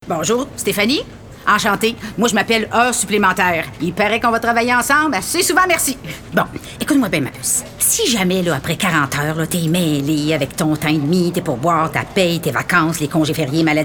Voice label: voix personnage, PUB; Heures supplementaires; Commission des normes du travail

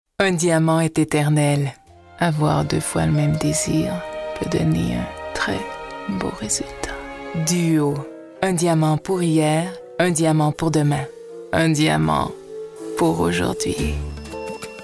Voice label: voix pub

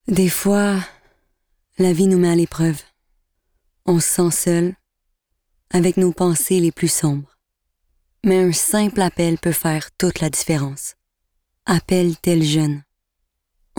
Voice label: Demo voix; Tel-Jeunes

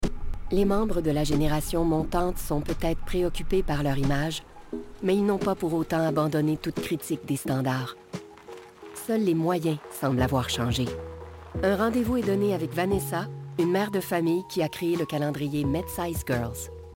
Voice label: Narration documentaire québécois; Narration documentaire - S’affranchir de l’image

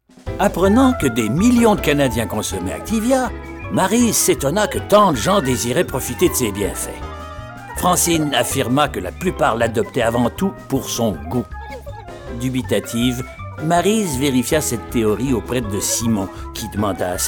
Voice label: DEMO VOIX pub; Publicité; Activa Parisienne

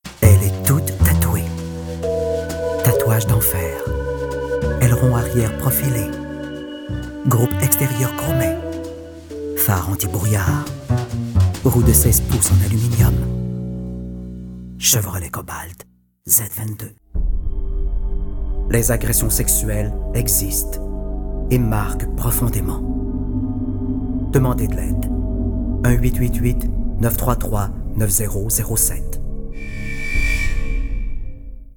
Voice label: voix pubs; Publicité

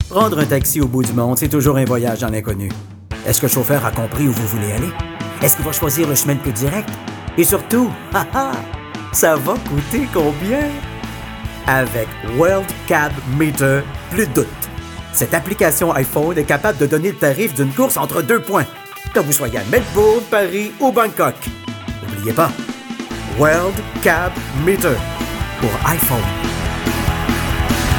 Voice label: voix pub; Publicité; WORLD CAB

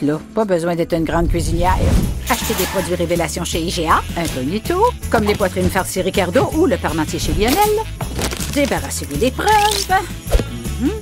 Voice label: Voix personnage, PUB/Voix de Guylaine, rusée et sympathique; IGA

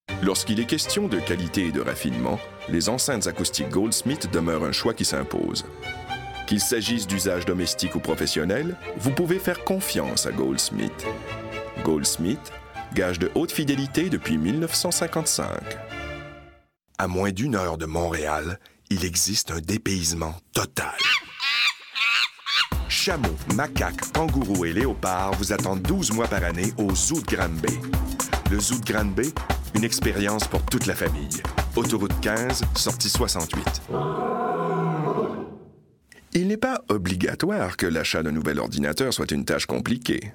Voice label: narration pub

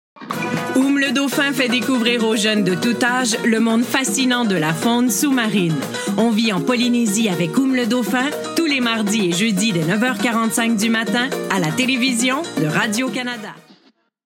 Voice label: Voix Dynamique

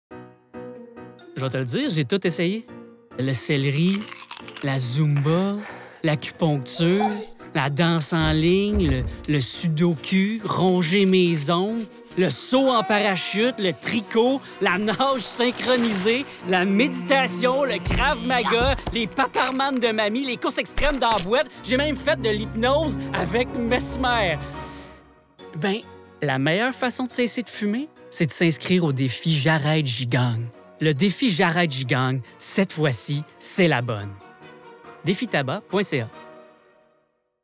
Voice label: Publicite; Publicité Défi Tabac

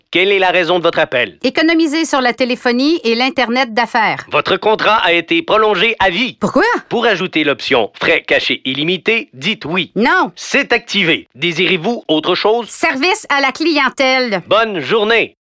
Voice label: Voix un peu dépassée, énergique et déterminée, PUB; PUB/Voix un peu dépassée, énergique et déterminée; personnage telephone; Cogeco

